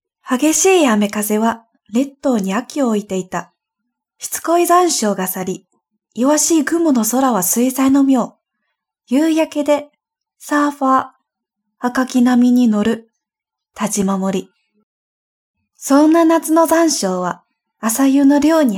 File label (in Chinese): 日语女-解说